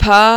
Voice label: "pha", marathi